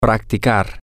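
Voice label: male, spanish; to Practice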